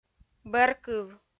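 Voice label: "бӧркыв", Komi, female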